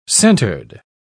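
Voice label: "centred", British English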